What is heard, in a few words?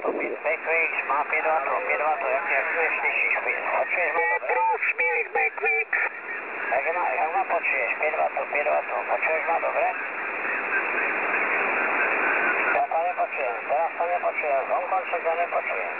recording 3756.1 kHz; WebSDR records; Kokoti